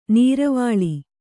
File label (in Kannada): "ನೀರವಾಳಿ", Kannada